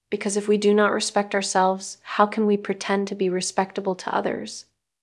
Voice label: Voice Acting es

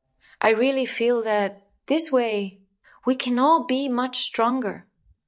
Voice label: Voice Acting es F

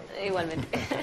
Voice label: Voice Acting es F

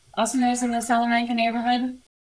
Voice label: Voice Acting es

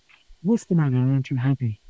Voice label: Voice Acting es M

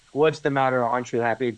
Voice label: Voice Acting, es, M

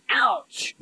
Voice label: Voice Acting, es, F0

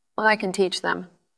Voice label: VoiceActing es F

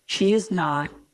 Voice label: Voice Acting, es, F0

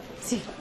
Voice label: Voice Acting es F

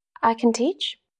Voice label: Voice Acting es F

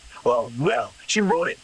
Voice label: Voice Acting, es

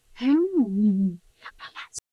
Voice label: Voice Acting es

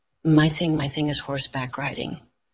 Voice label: Dubbing cloned es F2

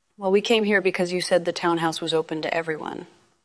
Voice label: VoiceActing es

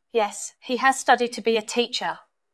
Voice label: VoiceActing es

